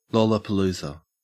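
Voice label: "lollapalooza", Australian English; pronunciations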